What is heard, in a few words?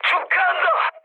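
Korean player killed enemy mood high, speech; War Thunder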